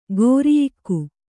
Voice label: "ಗೋರಿಯಿಕ್ಕು", Kannada